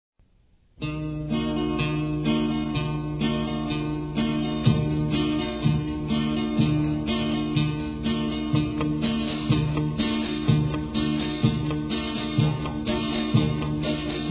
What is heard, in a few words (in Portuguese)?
Aniversário Avô Feminino